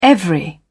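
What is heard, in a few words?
"every", British English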